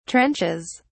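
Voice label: "trenches", British English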